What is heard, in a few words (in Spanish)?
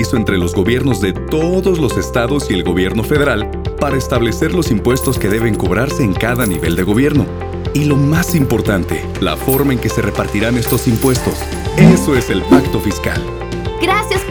Consulta popular Radio